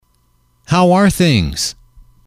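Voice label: how are things, English, male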